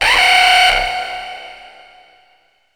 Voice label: spot; slender; isabelle